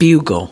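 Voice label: "bugle", US English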